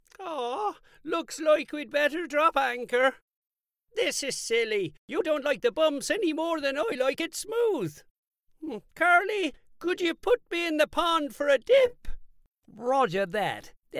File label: Animation